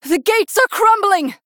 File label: Human Female Gates Attacked; Jaina; Jaina Mission6